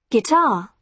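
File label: "guitar", British English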